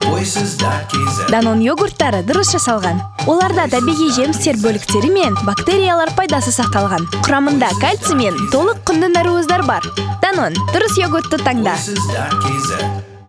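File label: kid kazakh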